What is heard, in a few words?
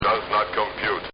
Robot Says Does Not Compute